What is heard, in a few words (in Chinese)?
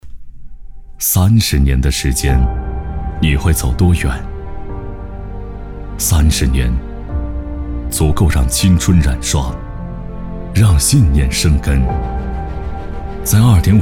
男 宣传片; 全国劳动模范配音